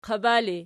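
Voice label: "qaba" ergative, Archi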